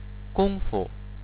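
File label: "gongfu", Chinese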